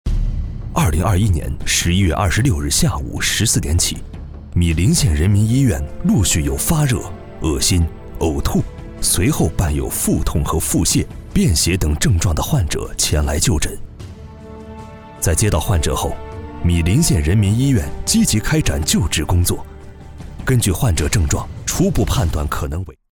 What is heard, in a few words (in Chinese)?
紧张 新闻 汇报 男播